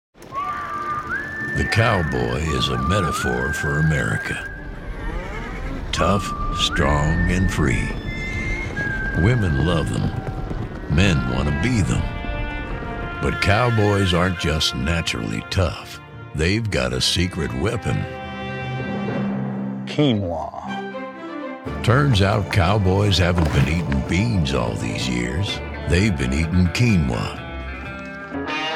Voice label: Character, male voice over; Sam Elliott-Like Character

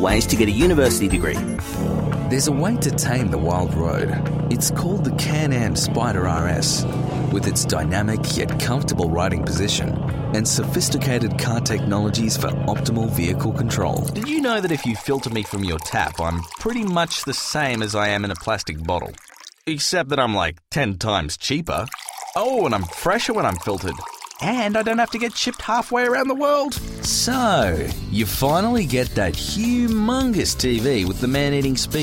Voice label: Male Voice Over Commercial Australian

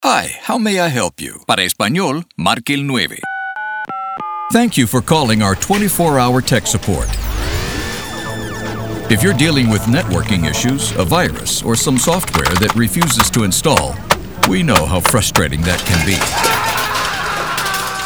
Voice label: Male Voice Over Talents Message On Hold Bilingual

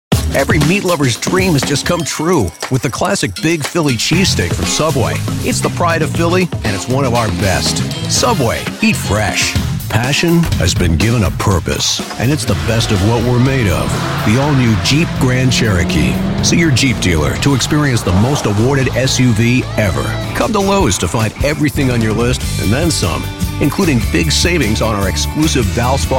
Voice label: Male Voice Over, Commercial

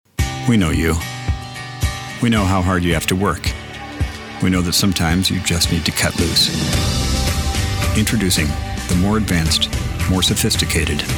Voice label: Male Voice Over Talents Commercial

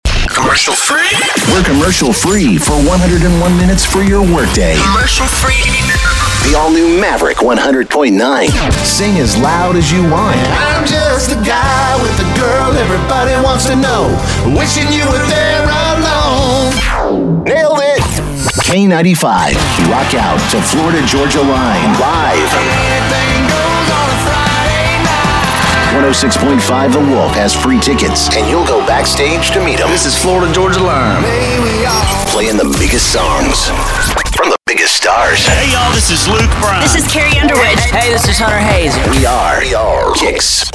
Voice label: Male Voice Over Talents Radio Imaging Country

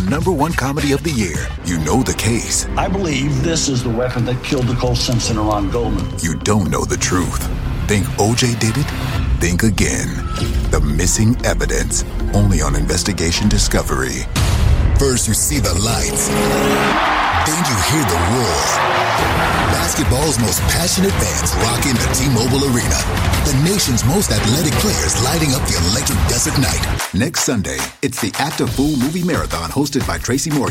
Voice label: Television Promo, male voice over

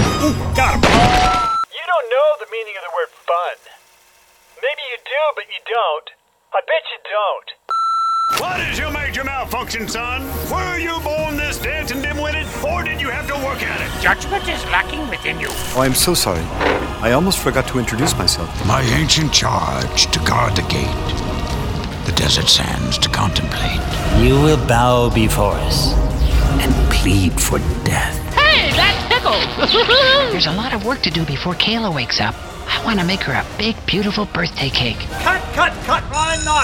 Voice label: Male Voice Over Talents Animation Game Voices

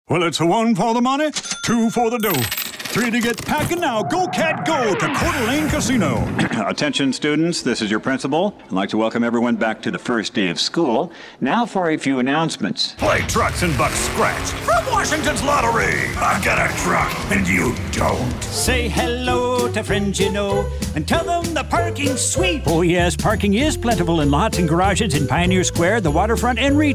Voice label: Male Voice Over Talent Commercial Characters

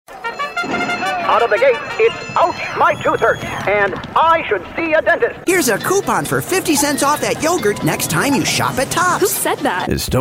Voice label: Male Voice Over Character Voice

